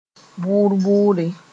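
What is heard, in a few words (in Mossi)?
"bʋr-bʋre", Mooré; indistinctly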